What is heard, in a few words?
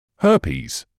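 "herpes", British English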